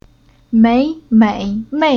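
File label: "Mei", Chinese syllable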